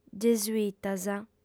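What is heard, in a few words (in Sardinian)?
"gesuitas", Sardinian, female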